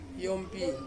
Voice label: "yompi" to work, Ansus